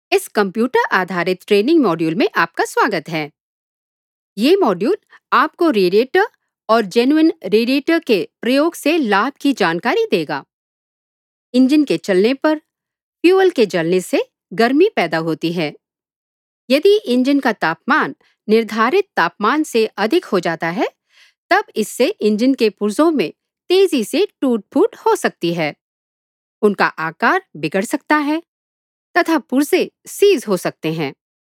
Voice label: HINDI E LEARNING